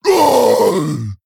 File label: Male Orc Death